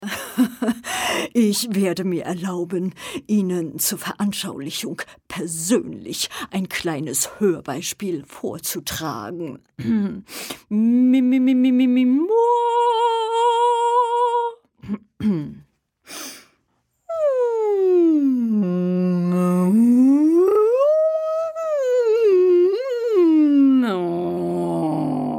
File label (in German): komisch